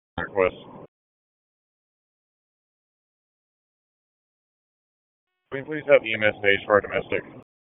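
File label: Raleigh Police Northeast District Dispatch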